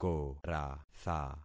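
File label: "koratha", concatenated syllables; Affixing experiment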